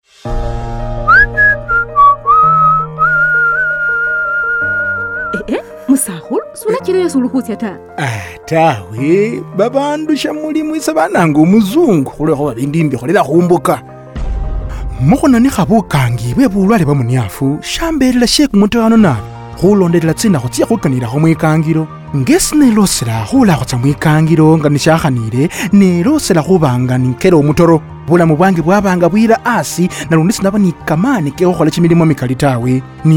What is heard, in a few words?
HIV Spot Lumasaba; ART Adherence Challenges; radio spot